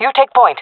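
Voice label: following friend, jungle female; vo; agents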